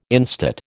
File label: "instate", American